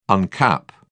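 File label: "uncap", British English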